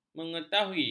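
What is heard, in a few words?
"mengetahui", Malay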